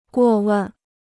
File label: "过问", Chinese